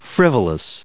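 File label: "frivolous", US English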